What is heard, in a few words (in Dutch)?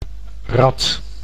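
"rad", Dutch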